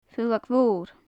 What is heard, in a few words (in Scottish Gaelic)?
"faoileag mhor", gaelic; Faclan Nàdair